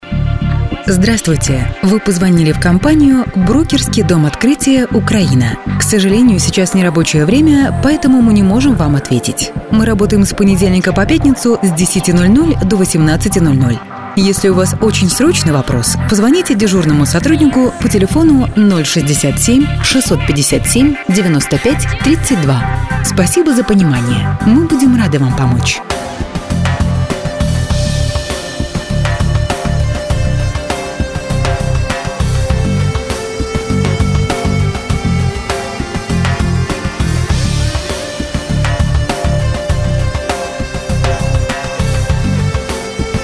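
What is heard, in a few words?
interactive voice response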